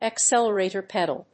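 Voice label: "accelerator pedal", English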